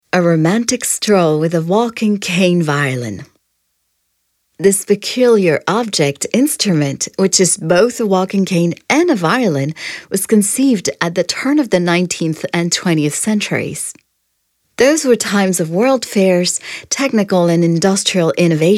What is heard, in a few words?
audioguia english; delamusica